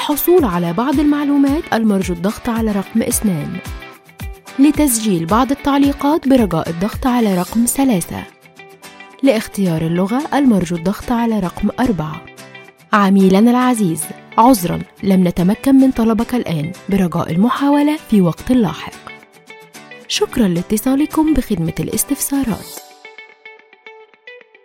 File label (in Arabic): مركز الحكمه IVR